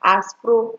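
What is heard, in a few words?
"aspro", Nigerian Pidgin, female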